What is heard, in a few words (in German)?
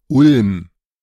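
"Ulm" German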